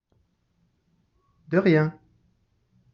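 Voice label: "de rien", French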